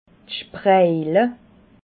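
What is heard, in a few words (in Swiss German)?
"spraile", Alsatian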